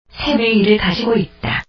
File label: Mail Korean